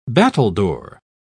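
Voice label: "battledore", British English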